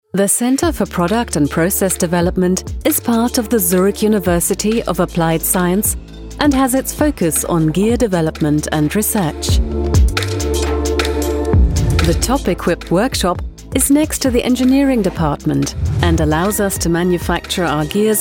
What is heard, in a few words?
EN Image; ZHAW